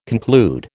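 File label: "conclude", American English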